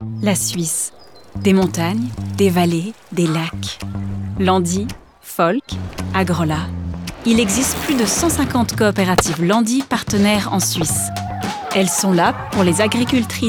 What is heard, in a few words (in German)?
Werbung FR CH; werbung franzoesisch ch